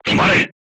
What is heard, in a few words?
voice message short stop, japanese